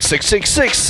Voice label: 6 6 6 male; Voice; numbers and letters